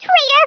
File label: "traitor", American; Speech; International